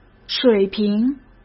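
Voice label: "水平", Mandarin Chinese; chinese flashcards; hsk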